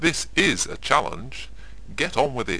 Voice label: chat